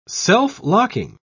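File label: "self-locking", British English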